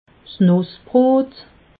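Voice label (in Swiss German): "nussebrot", Alsatian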